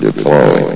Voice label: "deploy", vox; source engine; hl1; video games